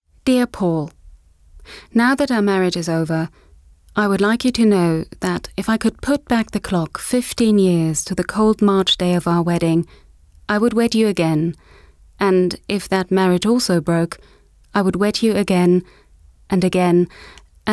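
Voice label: British letter, englisch; sprecher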